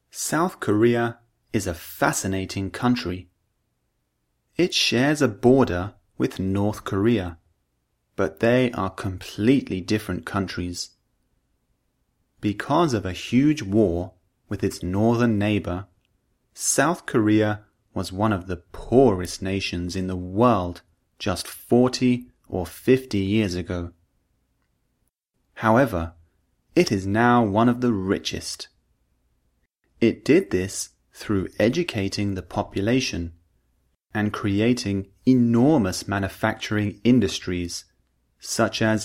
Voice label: Vowel Sounds, British English